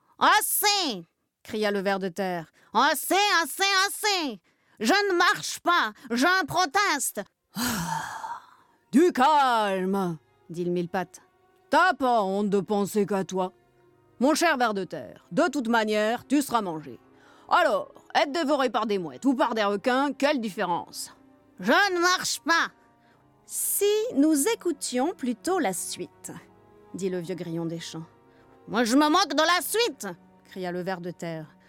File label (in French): Livre Audio Enfants